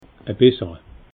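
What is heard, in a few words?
"bessere", Alsatian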